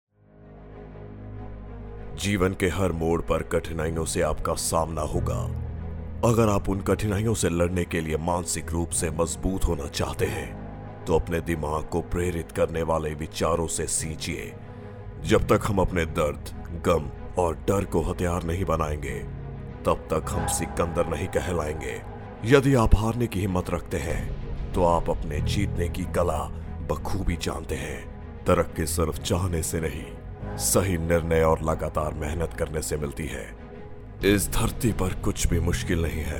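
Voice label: Hindi motivation speech, Male Voice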